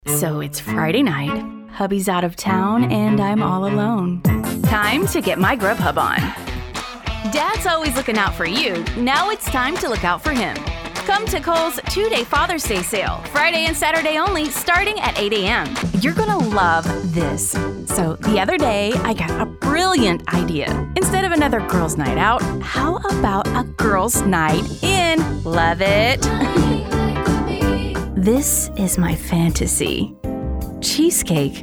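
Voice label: Commercial, voice actor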